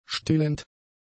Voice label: "stillend", German; adjectives